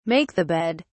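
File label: "make (the bed)", British English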